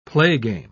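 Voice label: "playgame", British English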